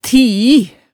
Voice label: "tii", hemsedalsmaalet tale

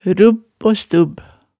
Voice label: "rubb o stubb", Hemsedal

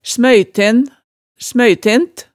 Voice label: "smøyten", hemsedalsmaalet, tale

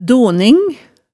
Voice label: "doning", hemsedalsmaalet tale

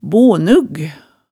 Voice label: "bonug", hemsedals maalet tale

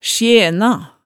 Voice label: "sjena", hemsedalsmaalet

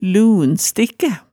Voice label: "lunstikkæ", hemsedalsmaalet tale

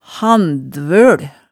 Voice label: "handvøl", hemsedalsmaalet

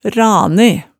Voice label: "rane", Hemsedal

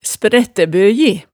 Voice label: "sprættæbøji", hemsedalsmaalet

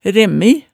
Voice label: "remmi", Hemsedal

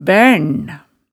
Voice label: "bærn", hemsedalsmaalet tale

